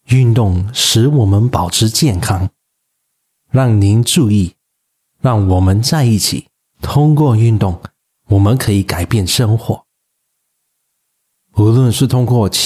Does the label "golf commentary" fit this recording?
No